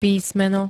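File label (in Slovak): "písmeno", Slovak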